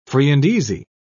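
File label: "free-and-easy", British English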